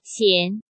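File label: "Xián", Chinese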